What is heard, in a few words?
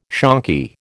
"shonky", American